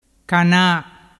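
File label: "cana", Vernazzese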